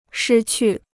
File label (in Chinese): "失去", Chinese